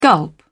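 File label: "gulp", British English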